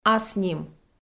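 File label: "асним", Komi-Zyrian, female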